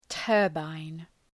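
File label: "turbine", English; {‘tɜ:rbən}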